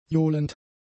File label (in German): "johlend", german; adjectives